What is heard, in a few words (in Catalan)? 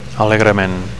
"alegrement", Catalan